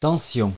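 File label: "tension", French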